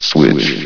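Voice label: "switch", male; vox; source engine; hl1; video games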